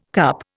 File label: "CUP", Indian